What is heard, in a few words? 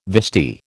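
"vestee", American English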